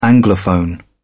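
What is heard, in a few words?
"anglophone", British English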